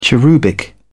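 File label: "cherubic", British English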